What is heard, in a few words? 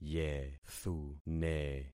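"yethune", concatenated syllables; Affixing experiment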